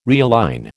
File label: "realign", American